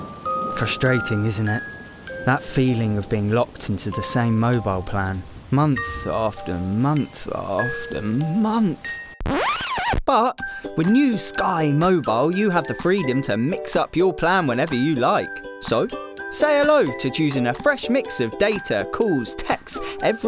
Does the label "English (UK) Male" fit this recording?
Yes